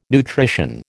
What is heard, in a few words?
"nutrition", American